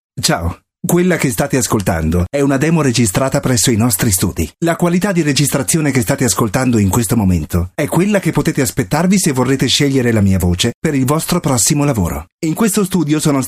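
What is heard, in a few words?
Italian male informative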